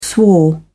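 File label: "swore", British English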